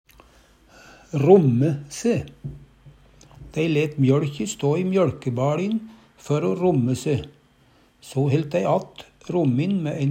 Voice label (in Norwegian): romme se, Numedalsmål